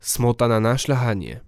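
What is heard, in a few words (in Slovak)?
"smotana na slahanie", Slovak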